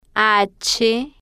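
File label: "h", spanish, female, slow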